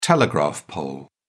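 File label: "telegraph pole", British English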